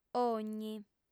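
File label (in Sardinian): "ogni", female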